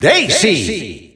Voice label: Spanish Announcer; SSBU